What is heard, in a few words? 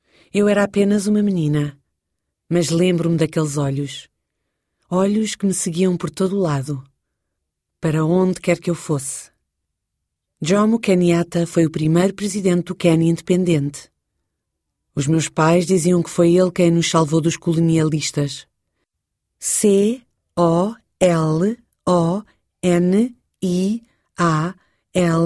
portuguese female